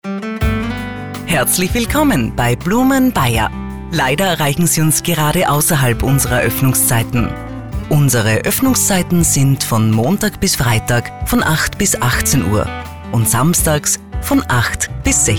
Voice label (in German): Nachtansage Sommer; Blumen Bair; telefonansagen sonstige